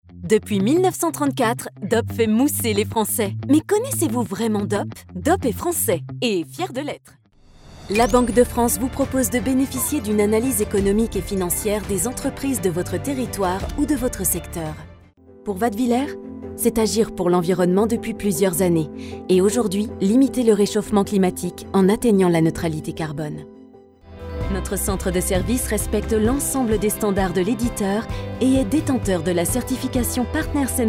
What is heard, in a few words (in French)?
DEMO INSTITUTIONNELLE